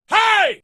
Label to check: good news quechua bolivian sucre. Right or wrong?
Wrong